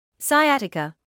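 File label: "sciatica", British English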